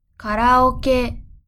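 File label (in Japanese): "カラオケ", Japanese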